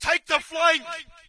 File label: brit flank; player; Source Engine; dod